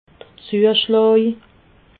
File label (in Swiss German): "zueschlaa", alsace